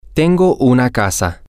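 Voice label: Spanish, male; i Have A House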